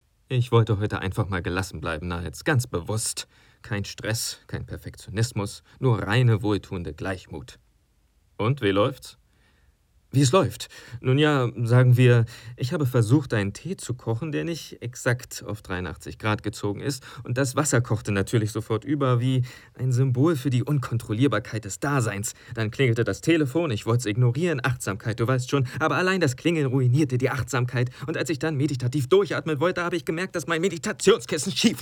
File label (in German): Spielszene Theater